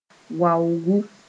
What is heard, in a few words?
"waogʋ", Mooré